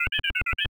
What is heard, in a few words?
Voice Normal